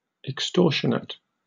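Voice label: "extortionate", British English; pronunciations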